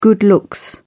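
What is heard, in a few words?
"good looks", British English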